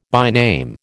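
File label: "byname", American English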